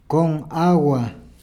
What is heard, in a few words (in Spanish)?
"con agua", Peru Cuzco; [kɔŋ.ˈaɣ˕wa]